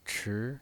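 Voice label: "chi", Mandarin Chinese, second tone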